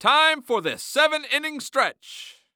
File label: Sports Human Voice Male Baseball Announcer; AdobeCC